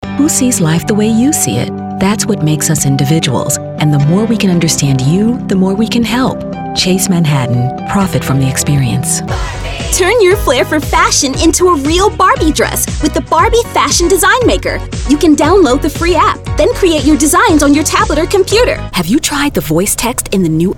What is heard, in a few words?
Commercial